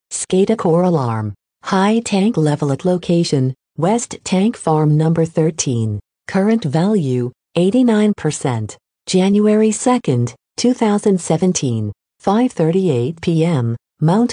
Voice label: alarm callout high tank level notification